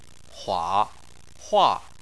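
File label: "hua", Chinese, third tone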